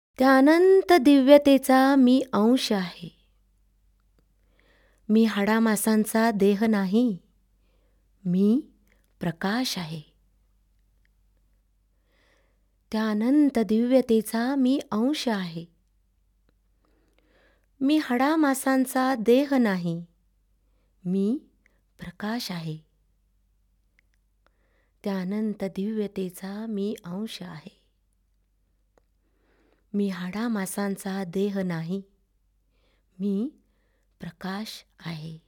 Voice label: Spiritual Affirmations, Marathi; Divine Mother; Love Peace Joy; Prayer & Light Meditation for World Peace